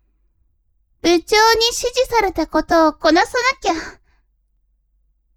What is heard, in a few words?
woman